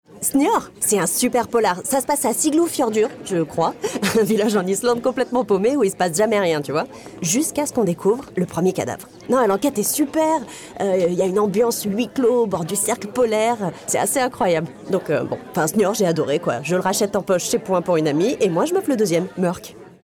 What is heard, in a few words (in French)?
SPOT; POINTS SNJOR